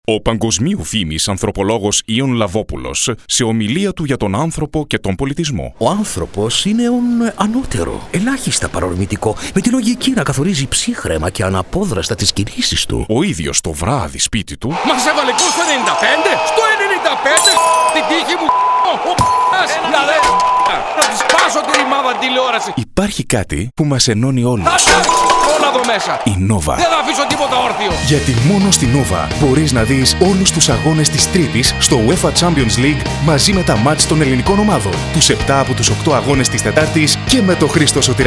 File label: GREEK; NOVA SPORTS 2